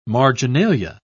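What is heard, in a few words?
"marginalia", English, British English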